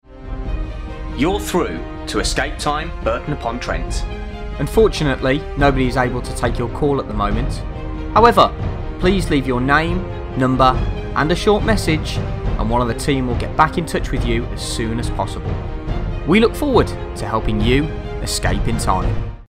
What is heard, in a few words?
voicemail